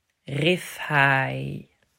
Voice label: "Rifhaai", Dutch